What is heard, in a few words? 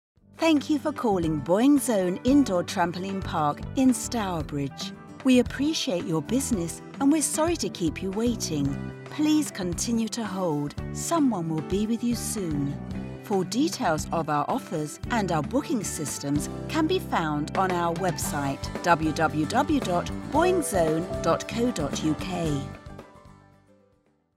english british ivr